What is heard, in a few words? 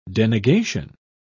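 "denegation", British English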